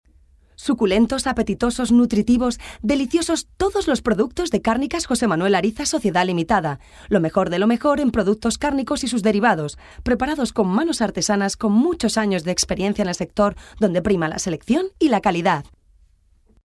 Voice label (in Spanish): locutora